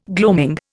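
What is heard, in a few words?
"gloat", Indian; Dictionary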